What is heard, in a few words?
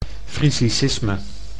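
"frisisime", Dutch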